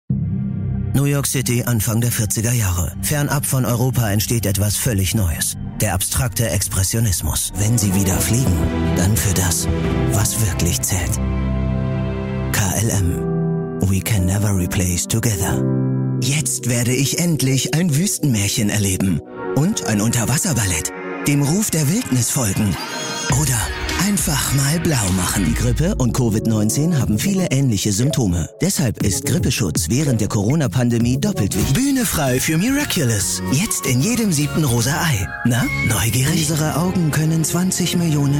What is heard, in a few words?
MEDLEY, Comment (Kommentar), Commercial (Werbung), Station Voice, German; MEDLEY 2022